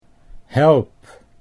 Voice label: "help", Dutch

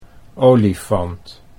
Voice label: "olifant", Dutch

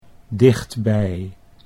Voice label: "dichtbij", Dutch

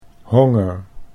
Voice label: "honger", Dutch

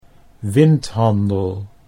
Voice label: "windhandel", Dutch

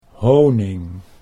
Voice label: "honing", Dutch

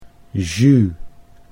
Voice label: "jus", Dutch

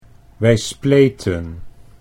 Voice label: "wij spleten", Dutch

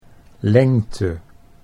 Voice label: "lengte", Dutch

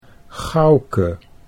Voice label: "Gouke", Dutch

